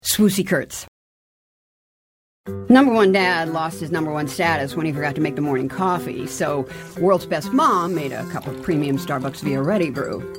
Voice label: commercial; Innovative LA